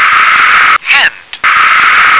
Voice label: "hand", English